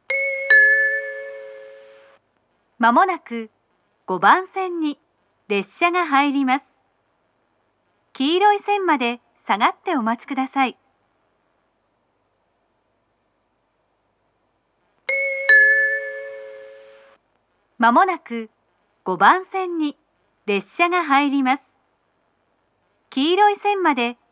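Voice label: platform 3 approaching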